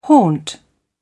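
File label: "haunt", English, uk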